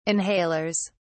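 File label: "inhalers", British English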